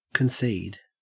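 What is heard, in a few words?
"concede", Australian English; pronunciations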